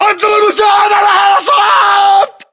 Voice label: reaction casualty generic, battle chatter, english